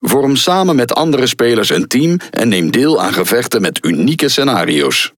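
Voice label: Speech Tutorial, Dutch; World Of Warships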